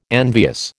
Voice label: "envious", American

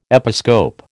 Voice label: "episcope", American

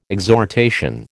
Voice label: "exhortation", American

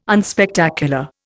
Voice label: "unspectacular", Indian

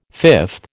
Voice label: "fifth", American

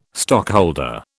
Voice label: "stockholder", British

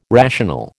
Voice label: "rational", American

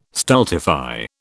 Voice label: "stultify", British

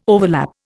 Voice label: "overlap", Indian

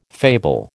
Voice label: "fable", American

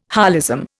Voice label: "holism", Indian

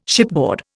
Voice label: "shipboard", Indian

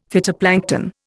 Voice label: "phytoplankton", Indian; Dictionary

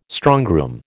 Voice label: "strongroom", American

